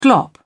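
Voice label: "glop", English, British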